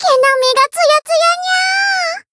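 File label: healed, Japanese; nekoko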